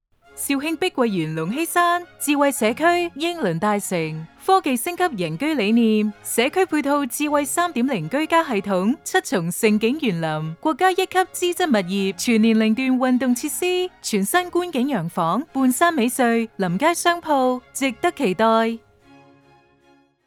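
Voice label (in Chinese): 粤语广告大型楼盘宣传; 碧桂园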